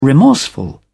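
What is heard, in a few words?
"remorseful", English, British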